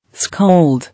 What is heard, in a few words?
"Scold", English